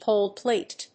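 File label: "pole plate", English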